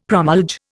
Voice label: "promulge", Indian; Dictionary